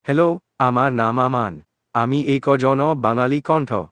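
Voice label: Bengali, India; iOS Sounds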